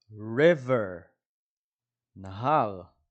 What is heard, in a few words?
"river" – "נהר"